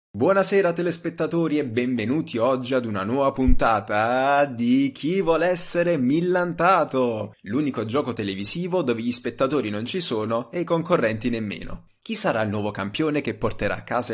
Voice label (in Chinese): 意大利语男 外语 小语种